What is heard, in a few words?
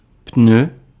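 "pneu", French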